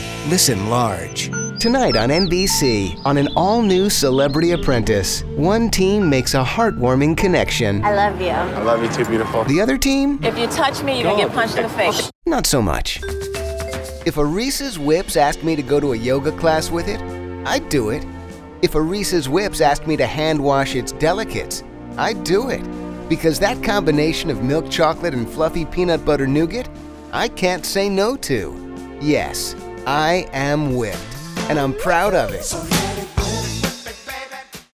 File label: Commercial